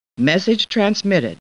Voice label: Star Trek Computer Voice